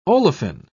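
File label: "olefin", British English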